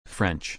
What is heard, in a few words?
French